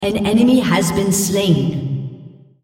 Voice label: female On Champion Kill Hero

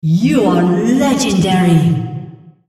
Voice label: female On Killing Spree

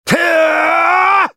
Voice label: ultimate effort; Ezreal

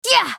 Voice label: spell cast effort; Nami; Character Voices - English

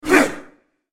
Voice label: effort, en US; Thresh

